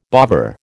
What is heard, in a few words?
"bovver", American